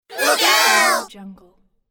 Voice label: cartoon character group look out

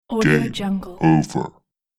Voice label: "game over" low male voice

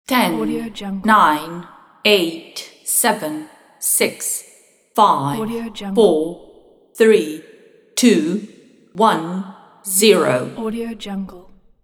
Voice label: female voice countdown

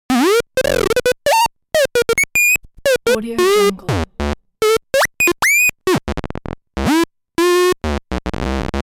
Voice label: game fx talking arcade; smartsoundfx

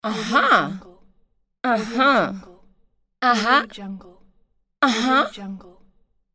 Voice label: woman voice "uh huh"

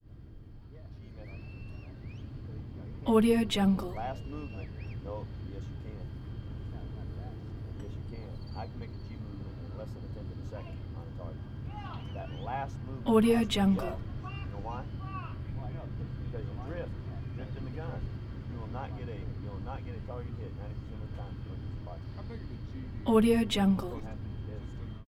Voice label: m48 tank crew walla exterior male voices; prosoundfx

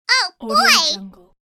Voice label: cartoon voice oh boy

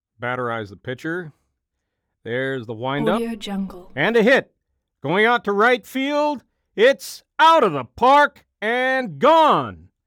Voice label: baseball announcer male voice homerun

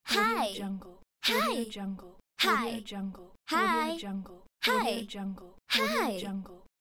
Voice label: teenage girl hi

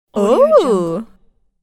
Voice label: female astonished voice

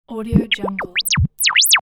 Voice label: brief robot talk or chatter; sound ideas

